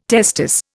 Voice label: "testis", Indian